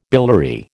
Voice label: "biliary", American

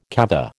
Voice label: "kava", American

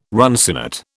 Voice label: "runcinate", British

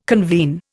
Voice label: "convene", Indian

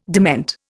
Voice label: "dement", Indian

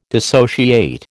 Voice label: "dissociate", American

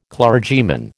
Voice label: "clergyman", American

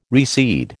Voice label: "recede", American

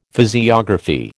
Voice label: "physiography", American

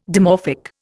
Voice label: "dimorphic", Indian

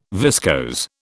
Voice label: "viscose", British

